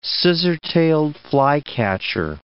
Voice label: "scissor-tailed flycatcher", English